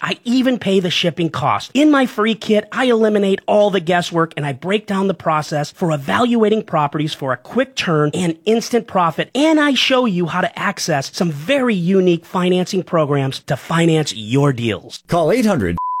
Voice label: radio ad flipping scammer